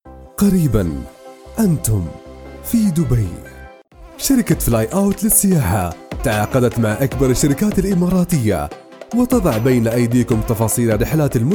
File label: Arabic Voice Over